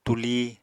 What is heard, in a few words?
"tulí", apatani